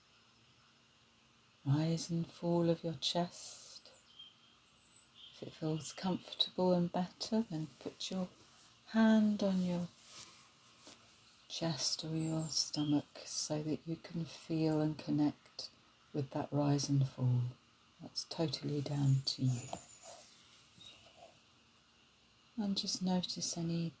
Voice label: Mindful breathing leaves on a stream Voice, relaxation; wellbeing